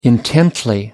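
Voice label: "intently", British English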